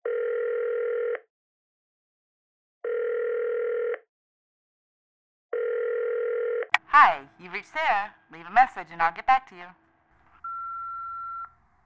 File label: Voicemail Greeting; zoomerang